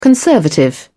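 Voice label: "conservative", British English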